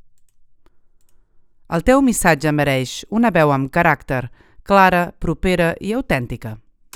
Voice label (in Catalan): veu amb caracter katalanisch; La Veu Demo Catalá